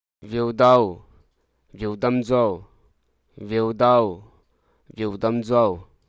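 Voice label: Hruso Aka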